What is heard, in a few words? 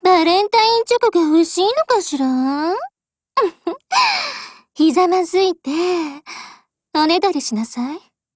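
valentine, Japanese; PPK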